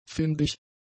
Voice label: "findig", German; adjectives

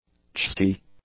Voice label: "chesty", English; adjectives

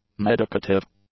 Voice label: "medicative", English; adjectives

